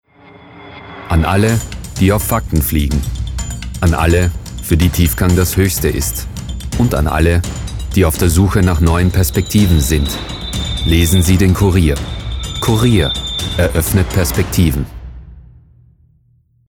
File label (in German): WERBUNG Musik; KRONE; Sprecherdatenbank